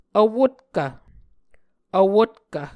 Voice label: "vwotkv", Muscogee

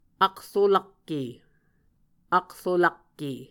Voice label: "akrolakke", Muscogee; Mvskoke Words with Repeated Consonants; Martin, Jack B., and Margaret McKane Mauldin. 2023. A Dictionary of Creek/Muskogee

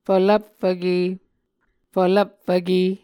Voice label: "fvlvpfvke", Muscogee

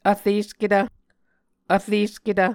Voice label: "vresketv", muscogee

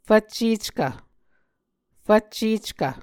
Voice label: "fvcceckv", Muscogee; Mvskoke Words with Repeated Consonants; Martin, Jack B., and Margaret McKane Mauldin. 2023. A Dictionary of Creek/Muskogee

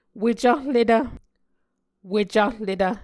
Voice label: "wecahletv", Muscogee

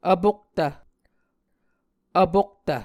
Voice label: "vpoktv", muscogee

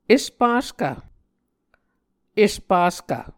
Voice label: "espaskv", Muscogee

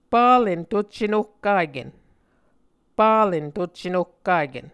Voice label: "palen tuccenohkaken", Muscogee; Mvskoke Words with Repeated Consonants; Onvkv Ohhvyayices; A Dictionary of Creek/Muskogee; SIL International

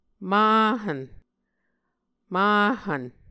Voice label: "mahvn", Muscogee; Mvskoke Words with Nasalized Vowels

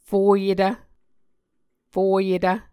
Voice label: "foyetv", Muscogee

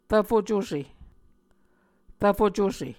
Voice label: "rvfo cuse", muscogee

